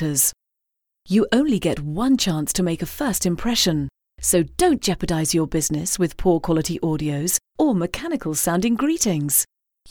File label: female voice